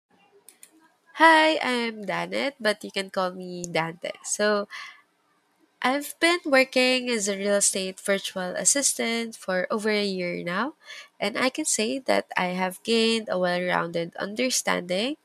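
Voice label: Voice Recording, Self Introduction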